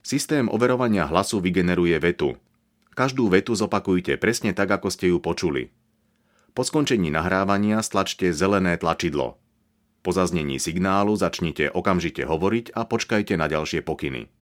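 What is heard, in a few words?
Slovak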